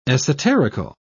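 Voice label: "esoterical", British English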